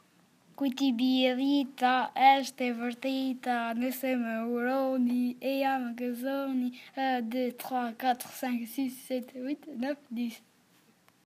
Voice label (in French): Am Stram Gram, Albanais